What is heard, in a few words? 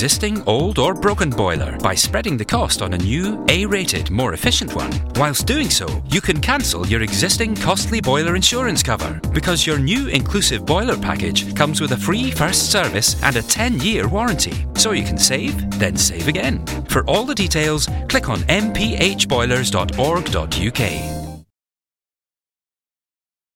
boilers advert